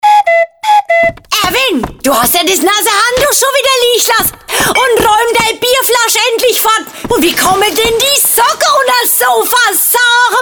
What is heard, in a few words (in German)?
Radiospot; Mahler Bauzentrum Radiokampagne; mahler kampagne 2016